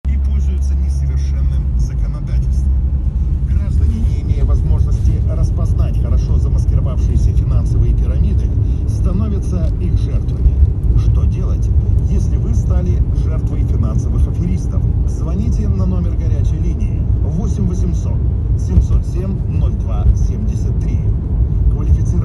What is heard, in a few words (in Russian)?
audio reklama